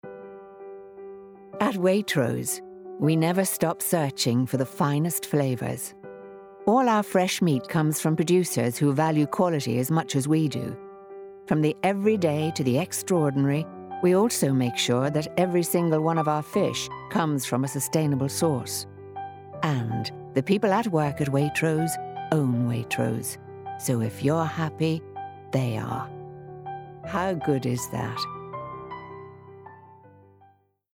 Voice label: COMMERCIAL; WAITROSE